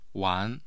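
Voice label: "wan2", Mandarin Chinese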